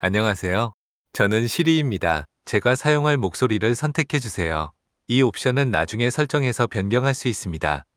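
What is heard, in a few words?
Korean, Korea; iOS 26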